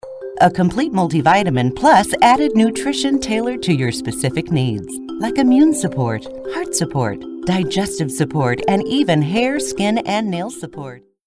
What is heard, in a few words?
A warm motherly voice; Multivitamin